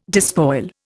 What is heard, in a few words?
"despoil", Indian